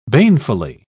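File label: "banefully", British English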